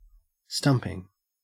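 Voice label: "stumping", Australian English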